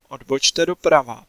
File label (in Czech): Turn Right, Czech, male